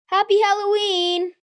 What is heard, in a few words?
Happy Halloween, Occasions, 1 boy, 11 yrs; Holiday Sound Effects